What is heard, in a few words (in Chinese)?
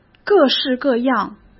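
"各式各样", Mandarin Chinese; chinese-flashcards; hsk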